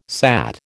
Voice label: "sat", American